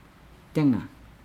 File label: "denga", Nukuoro